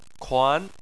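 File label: "kuan" second tone, Chinese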